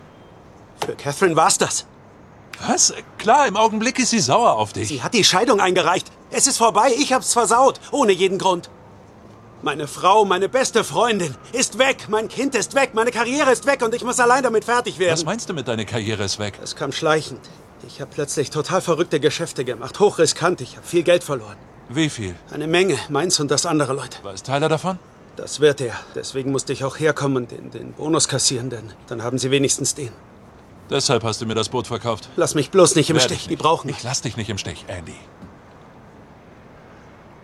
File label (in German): Synchron, maenner; This is Us; Jimmi Simpsons